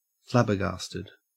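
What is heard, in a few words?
"flabbergasted", Australian English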